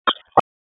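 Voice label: EMS dispatch; wake; p25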